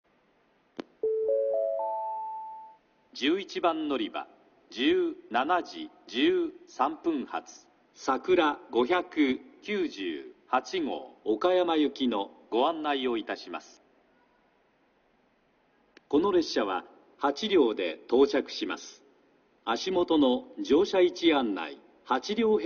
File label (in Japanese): 11番のりば案内放送（みずほ604号 広島行き）※新大阪行きから広島行きに変更, 久留米（新幹線ホーム）; 台風の影響による行先変更